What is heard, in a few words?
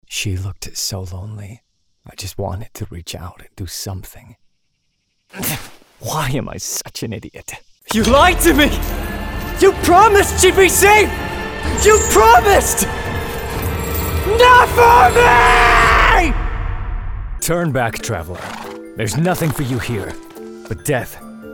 Character Demo Reel